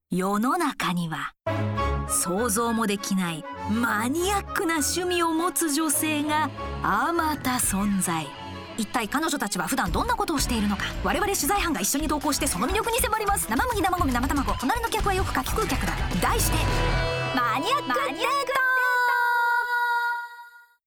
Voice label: japanese narration